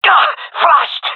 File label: blinded, gendarmerie male; vo